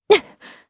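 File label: Vox Landing, Japanese; Chrisha; kingsraid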